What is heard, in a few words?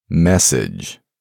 man message, deep voice; voice-ringtones